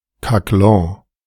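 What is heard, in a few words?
"Caquelon", German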